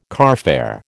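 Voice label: "carfare", American English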